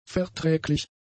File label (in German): "verträglich", German; adjectives